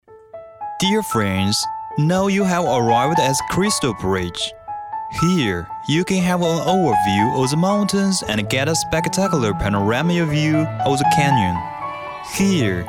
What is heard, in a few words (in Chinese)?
男英 宣传片 旅游 旅游景点; 英语